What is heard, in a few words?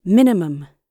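"minimum", British English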